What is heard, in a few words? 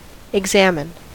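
"examine", US English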